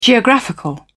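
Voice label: "geographical", British English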